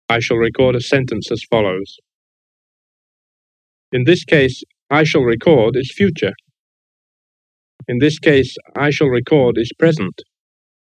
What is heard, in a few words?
Analog Tape 1960s English Voice